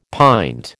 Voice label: "pint", American

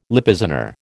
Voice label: "Lippizaner", American

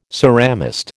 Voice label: "ceramist", American

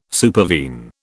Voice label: "supervene", British

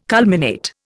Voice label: "culminate", Indian

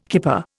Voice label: "kipper", Indian

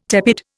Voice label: "tepid", Indian

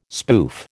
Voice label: "spoof", American

